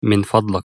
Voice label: "please", Egyptian Arabic